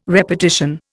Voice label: "repartition", Indian